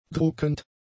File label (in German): "druckend", German; adjectives